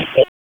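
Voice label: Raleigh Police North District Tactical, p25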